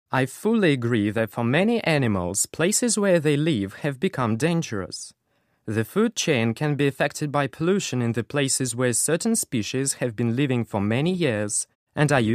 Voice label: british english male